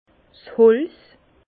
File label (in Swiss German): "holz", Bas Rhin; vin; bois